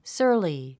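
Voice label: "surly", English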